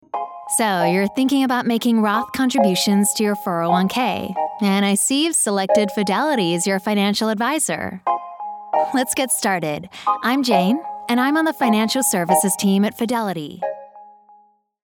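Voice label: english american interactive voice response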